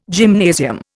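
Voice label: "gymnasium", Indian English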